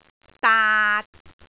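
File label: "daat6", Cantonese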